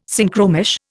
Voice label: "Synchromesh", Indian